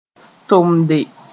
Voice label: "tomde", Mooré